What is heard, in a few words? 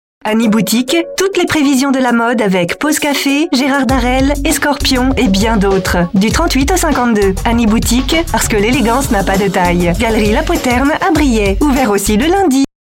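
French female, advert; FR F PUB; Français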